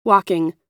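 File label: "walking", American English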